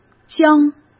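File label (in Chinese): "姜", Mandarin Chinese; hsk; chinese flashcards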